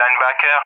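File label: "Linebacker", English speech; Allied Flight; AirDefence; DCS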